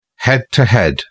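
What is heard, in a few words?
"head-to-head", British English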